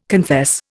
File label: "confess", Indian